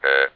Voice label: EH, votrax speech; vectrex